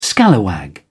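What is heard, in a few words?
"scalawag", British English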